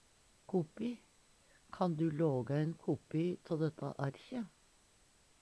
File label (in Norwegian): "kopi", Numedalsmål